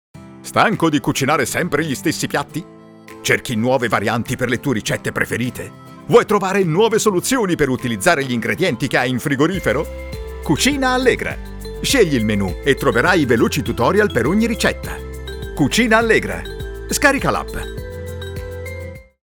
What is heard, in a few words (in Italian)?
allegro frizzante veloce